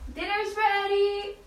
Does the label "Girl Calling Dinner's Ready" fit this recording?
Yes